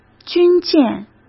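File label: "军舰", Mandarin Chinese; chinese-flashcards; hsk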